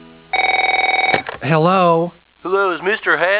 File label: prank call; The school counselor prank calls Mr. Garrison